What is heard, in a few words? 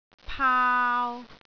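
"paau3", Cantonese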